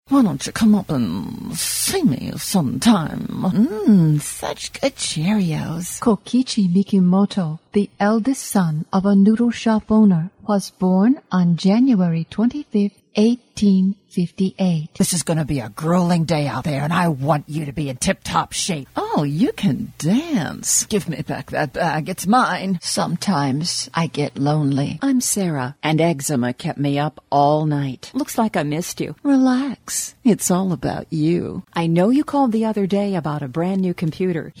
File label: Character Segments